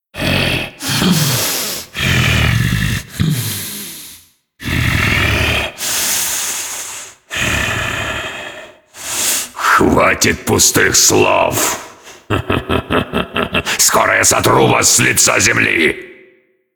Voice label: cinematic voice, ru; lineage2